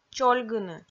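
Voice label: "чольгыны", Komi-Zyrian, female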